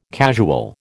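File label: "casual", American English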